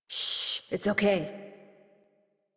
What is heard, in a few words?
Horse Calm Adult; Warframe; Duviri